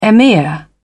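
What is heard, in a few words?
"emir", British English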